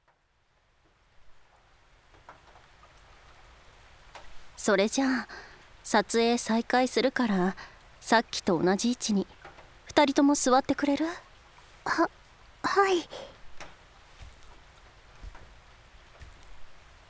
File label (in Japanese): 雨降りバージョン, ASMR; 田舎の幼馴染のAV出演を手伝って…